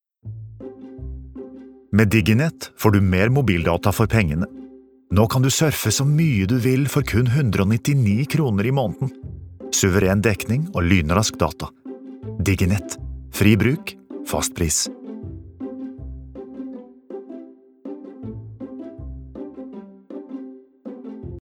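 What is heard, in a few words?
Norwegian commercial soft; norsk; mann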